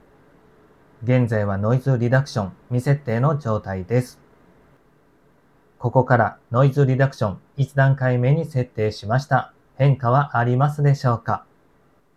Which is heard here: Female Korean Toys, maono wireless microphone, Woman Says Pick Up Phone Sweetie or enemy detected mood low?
maono wireless microphone